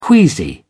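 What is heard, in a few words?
"queasy", British English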